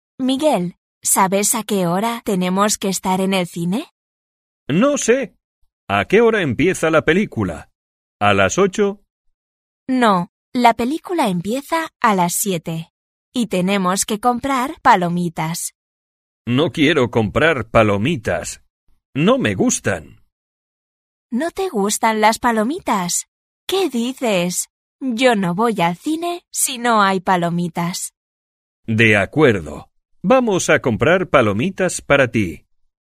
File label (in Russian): urok dialog